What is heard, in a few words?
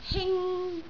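"hing1", Cantonese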